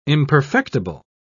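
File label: "imperfectible", British English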